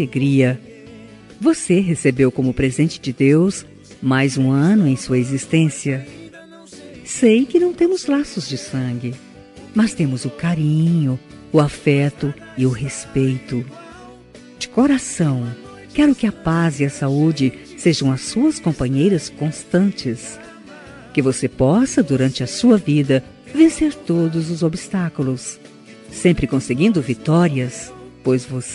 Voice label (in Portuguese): enteada fem, Aniversário de Enteada – Voz Feminina; Telemensagens para todas as ocasiões